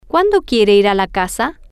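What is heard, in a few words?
Spanish, female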